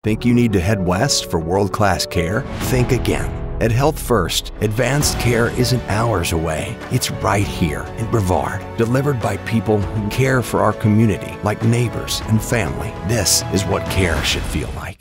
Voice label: Spot; Health First